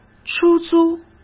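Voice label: "出租" Mandarin Chinese; hsk; chinese-flashcards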